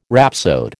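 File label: "rhapsode", American English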